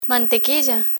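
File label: "mantequilla", Spanish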